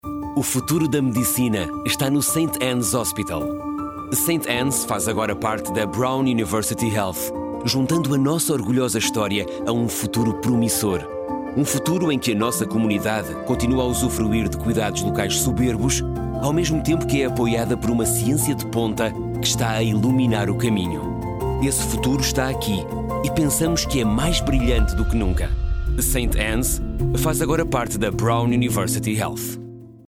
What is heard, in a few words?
PORTUGUESE radio spot; 30 sec; Saint Annes Phase 1